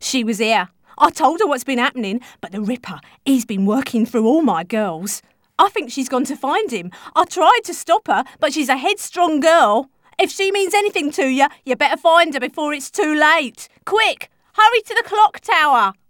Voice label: Voices Others English